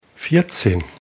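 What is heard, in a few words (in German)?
"vierzehn", German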